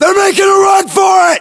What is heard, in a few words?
threat infantry exposed, US